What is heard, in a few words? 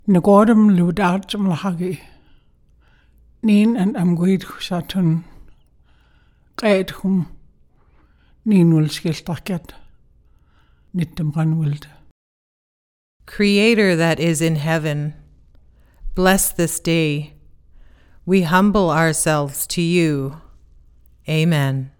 Simple Prayer